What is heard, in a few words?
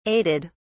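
"aided", British English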